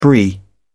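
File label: "brie", English, British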